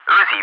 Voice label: "Uzi", ENG, ATC; Callsign; DCS